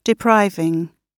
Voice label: "depriving", gb